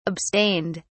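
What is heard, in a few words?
"abstained", British English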